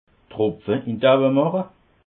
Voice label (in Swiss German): "tropfe", alsace